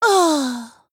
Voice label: Female Night Elf Death